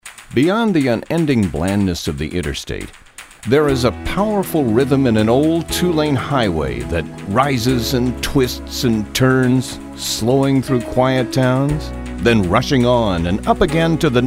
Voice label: male; Spotland Productions